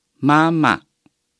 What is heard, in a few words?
"媽媽", Chinese